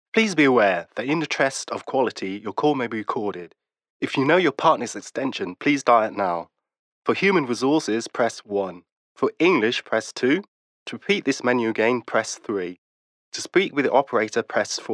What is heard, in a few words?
Callcenter